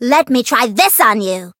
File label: Voice variable chant; pom